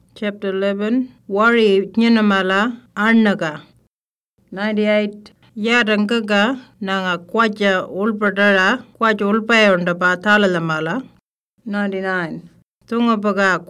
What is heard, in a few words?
Western Arrarnta; Fracking